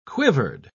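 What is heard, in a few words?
"quivered", English, uk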